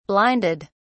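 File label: "blinded", British English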